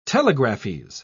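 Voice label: "telegraphese", British English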